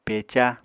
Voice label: "peca", Apatani